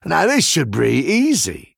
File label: Male Human Play